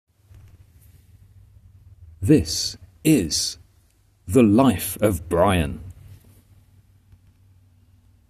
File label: voiceover